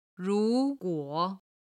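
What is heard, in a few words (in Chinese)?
"ruguo", Chinese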